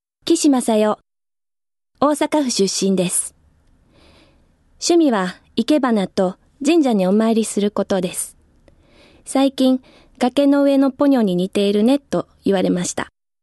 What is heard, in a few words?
female voice freetalk